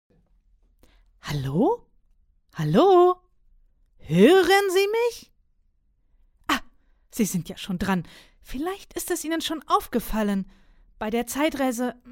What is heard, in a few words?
Game female